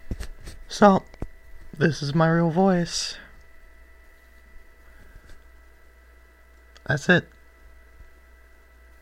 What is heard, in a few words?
my real voice